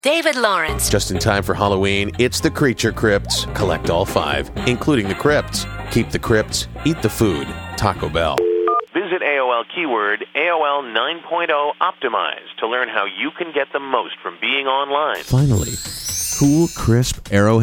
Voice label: vo commercial